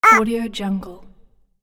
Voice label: cute voice "up"

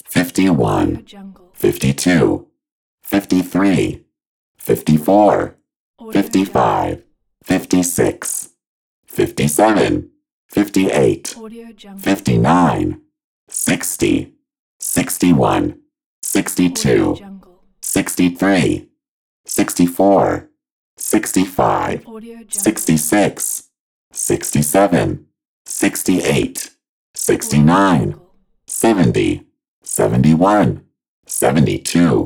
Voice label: neutral robot counting from 51 to 100

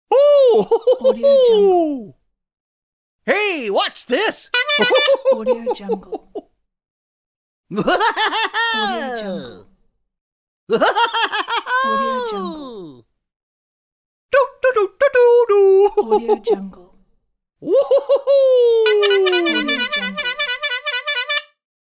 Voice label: clown character pack

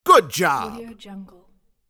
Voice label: "good job" male voice game phrase

